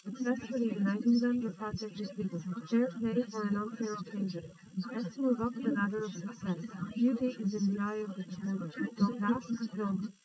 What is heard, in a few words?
female, 130ms, 50cm; SiSEC11; underdetermined